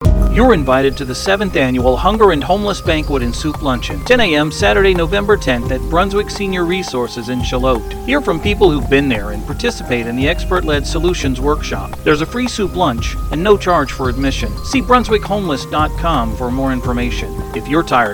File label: radio spot